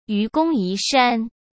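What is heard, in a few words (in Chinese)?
"愚公移山" Mandarin Chinese; chinese-flashcards; hsk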